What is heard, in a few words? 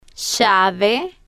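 "llave", Spanish, female, slow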